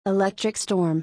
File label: "Electric Storm", English; thunder-and-lightning-in-english